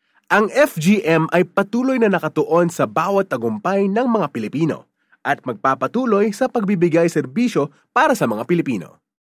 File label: Tagalog (Professional, Sincere)